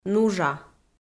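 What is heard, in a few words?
"нужа", Komi, female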